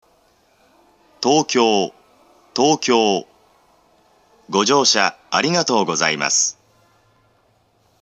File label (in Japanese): 到着放送, 発車メロディー; ３番線到着放送; 東京; 管理名称は「ＪＲＥ‐ＩＫＳＴ‐００７-０１」です。「京浜東北線北行新汎用曲」です。